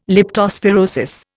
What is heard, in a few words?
"leptospirosis", Indian